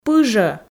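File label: "вежӧ", Komi, female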